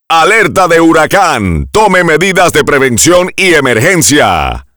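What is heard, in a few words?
Hurricane Warning, Spanish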